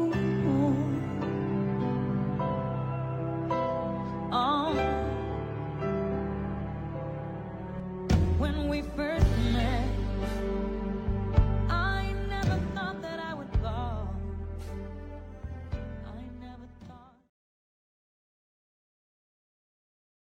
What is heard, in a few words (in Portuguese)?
namorado fem, Dia dos Pais para Namorado – Voz Feminina